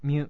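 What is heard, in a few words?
"myu", Japanese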